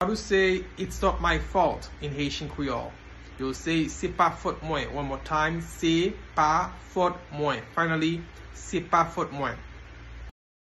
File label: Its not my fault in Haitian Creole Se pa fot mwen pronunciation by a Haitian teacher